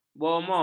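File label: "bomoh", Malay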